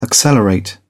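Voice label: "accelerate", English, British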